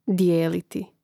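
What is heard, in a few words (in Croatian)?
"dijeliti", Hrvatski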